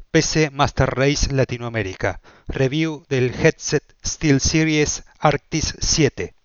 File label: Arctis 7 Sound test